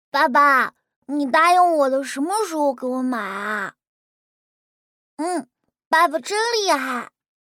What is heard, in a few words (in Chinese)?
tongsheng peiyin